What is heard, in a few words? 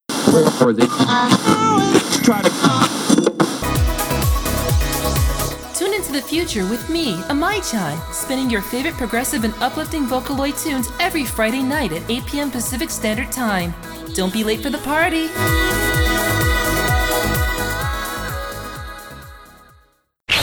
promo branding